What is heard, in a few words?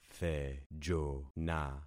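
"thejona", concatenated syllables; Affixing experiment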